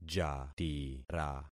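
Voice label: "jatira", concatenated syllables; Affixing experiment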